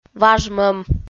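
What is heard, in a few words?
"важмӧм", Komi, female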